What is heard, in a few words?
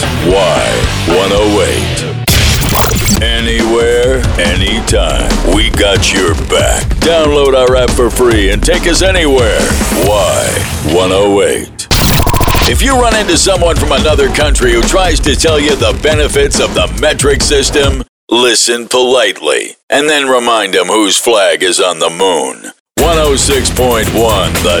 Imaging Classic Rock